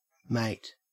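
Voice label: "mate", Australian English; pronunciations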